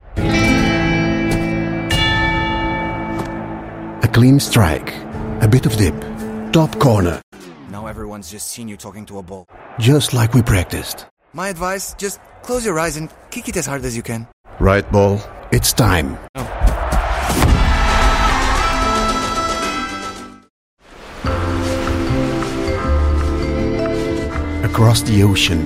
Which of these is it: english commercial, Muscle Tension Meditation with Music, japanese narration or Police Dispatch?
english commercial